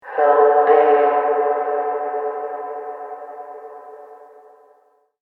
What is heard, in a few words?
Help Me Spirit Voice; Talking Sound Effects; horror sound effect